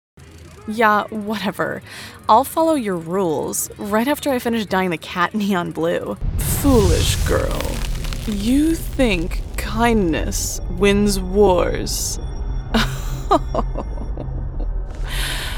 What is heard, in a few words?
Animation, Female Voice; Voice Actor